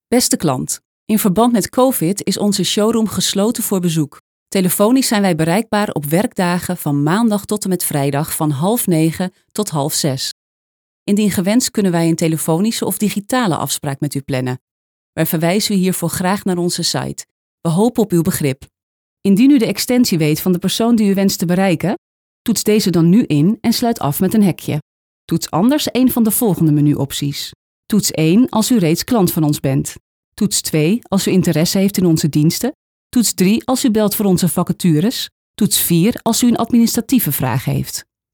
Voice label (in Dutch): Vrouw